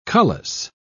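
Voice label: "cullis", British English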